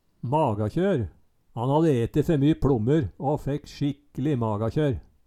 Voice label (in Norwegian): magakjør, Numedalsmål